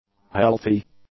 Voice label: "healthy", English; adjectives

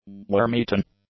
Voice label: "worm-eaten", english; adjectives

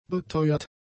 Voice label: "beteuert", German; adjectives

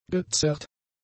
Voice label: "gezerrt", German; adjectives

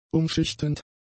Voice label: "umschichtend", German; adjectives

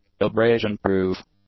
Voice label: "abrasion-proof", english; adjectives

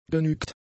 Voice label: "genügt", German; adjectives

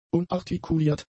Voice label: "unartikuliert", German; adjectives

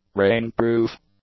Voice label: "rain-proof", English; adjectives

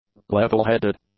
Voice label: "levelheaded", english; adjectives

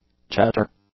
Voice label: "chatter", English; nouns

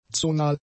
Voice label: "zonal", German; adjectives

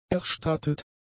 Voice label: "erstattet", German; adjectives

